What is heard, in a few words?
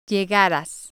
"llegaras", spanish